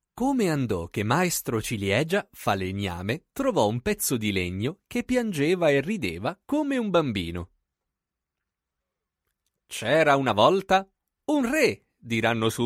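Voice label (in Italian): children, Italian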